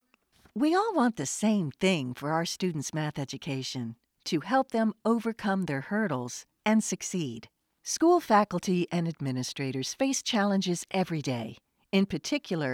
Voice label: Educational